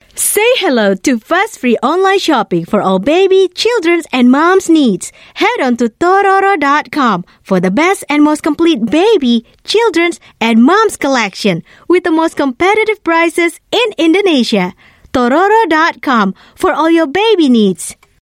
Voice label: Bahasa Indonesia Female Commercial Clean Voice Over